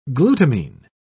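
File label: "glutamine", English, British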